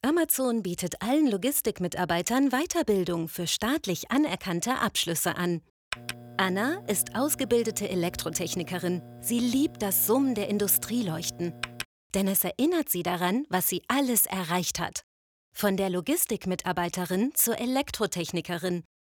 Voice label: RADIOSPOT, DE; AMAZON